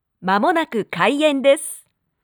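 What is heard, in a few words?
"mamonaku kaien desu", Japanese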